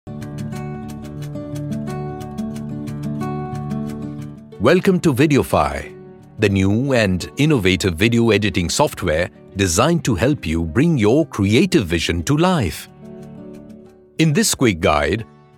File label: EXPLAINER ENGLISH